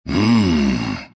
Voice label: happy; dota; slardar